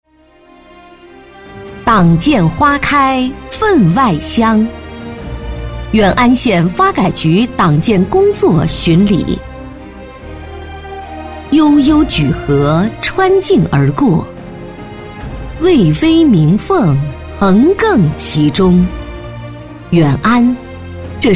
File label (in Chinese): 专题 党建 沉稳; 市监局